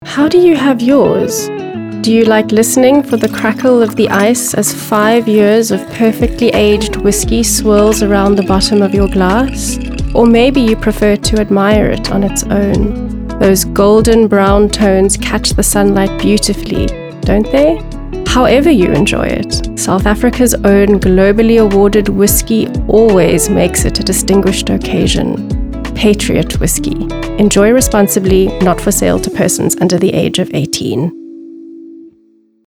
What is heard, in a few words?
Soft Sell Sensual Warm